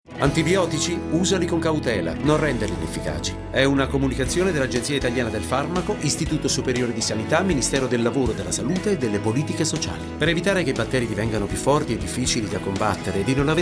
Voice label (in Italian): spot radio